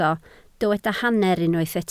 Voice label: "hanner", Welsh